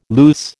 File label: "luce", American